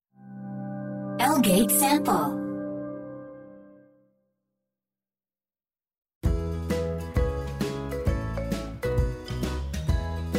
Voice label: 프랑스 남 기본톤

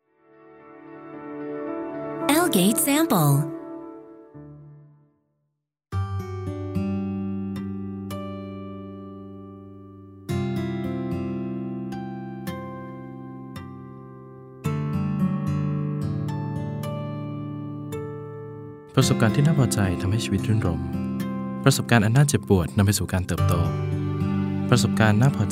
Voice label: 태국 남 차분한 톤

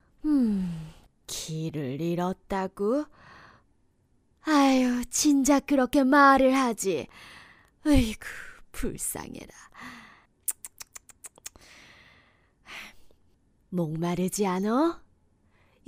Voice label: 70대 노인 선하고 인자한 할머니

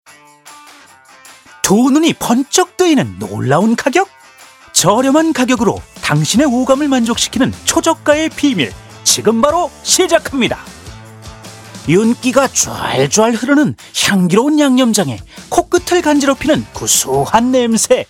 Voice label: 방송 내레이션 코믹한 예능감 있는

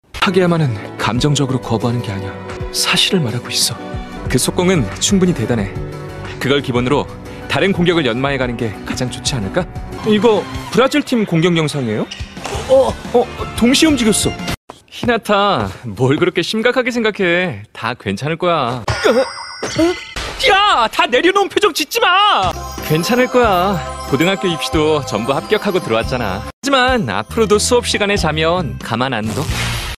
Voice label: 캐릭터 차분한 캐릭터 20대; 하이큐; 스가와라

